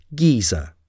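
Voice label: "geyser", English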